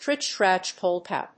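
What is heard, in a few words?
"Tritsch-Tratsch-Polka", English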